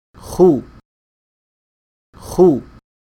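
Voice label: Khaa, Arabic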